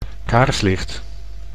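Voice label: "kaarslicht", Dutch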